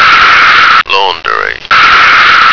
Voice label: "laundry", American